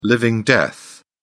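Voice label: "living death", British English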